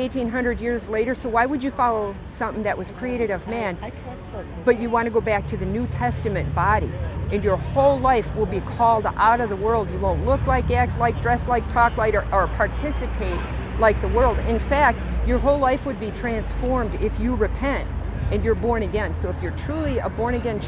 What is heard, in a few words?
church woman; calvary church